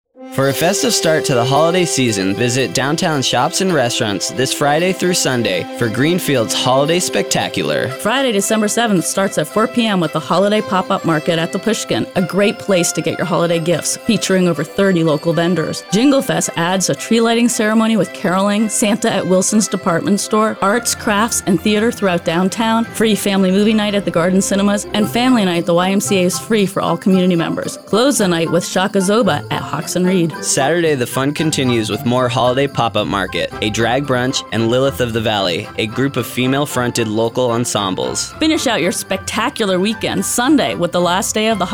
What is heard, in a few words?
Radio Advert; GBA JingleFest